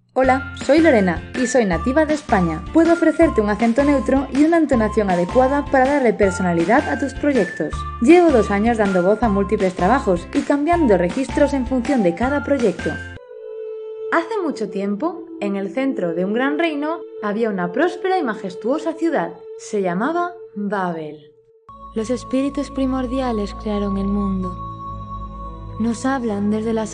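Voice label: Spanish female, lively; 西班牙语女; 外语; 小语种; 轻快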